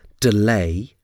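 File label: "delay", English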